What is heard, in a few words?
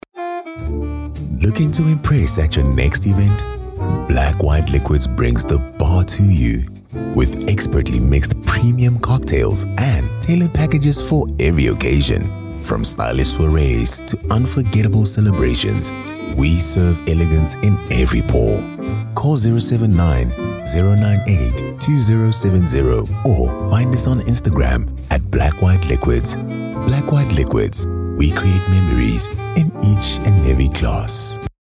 Soft Sell Voice Over